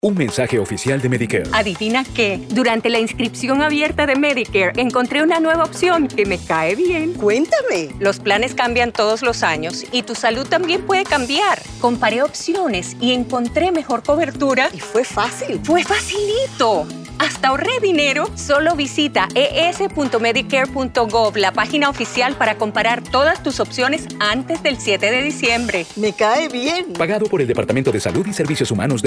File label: spanish radio audio